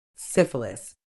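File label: "syphilis", British English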